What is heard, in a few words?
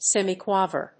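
"semiquaver", English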